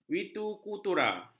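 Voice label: "vitikultura", Malay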